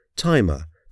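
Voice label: "timer", English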